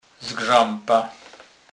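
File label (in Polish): "zgrzepa", Kurpie dialect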